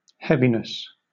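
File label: "heaviness", British English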